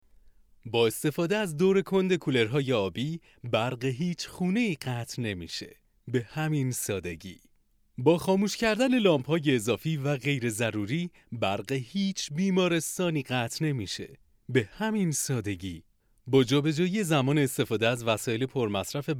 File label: narrator